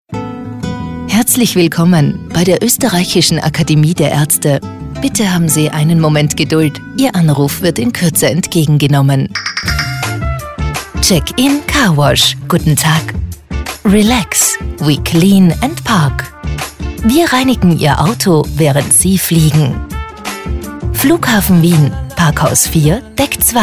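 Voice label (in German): telefonansagen sprecher Austrian; Native Speaker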